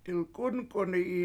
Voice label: "7ilk7Unk7uni7i", Washo; It Is Crooked